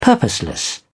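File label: "purposeless", British English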